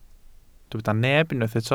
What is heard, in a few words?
"neb", Welsh